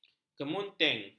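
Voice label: "kelemunting", Malay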